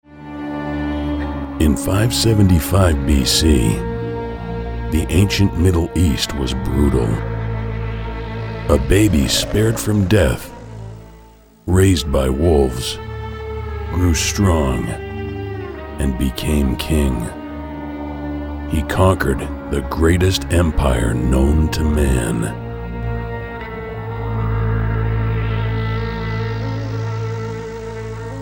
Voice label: trailer